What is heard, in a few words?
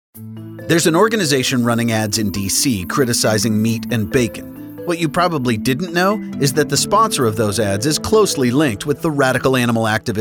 radio spot; Bacon Humane Watch